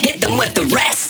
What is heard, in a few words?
Vocal Pitched Phrase Male "Rest"